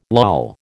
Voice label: "loll", American